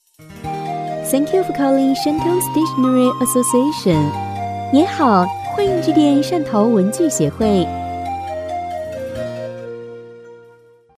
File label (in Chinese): 女 彩铃 中英文彩铃 安排轻音乐